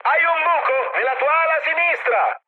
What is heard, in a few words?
left wing mood high, speech it; War Thunder